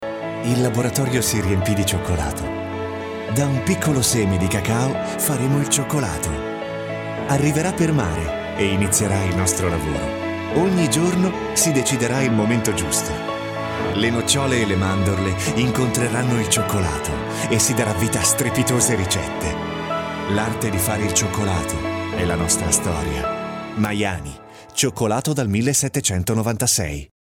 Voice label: male Italian tv comercial; ideasonora